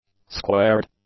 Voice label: "squared", english; adjectives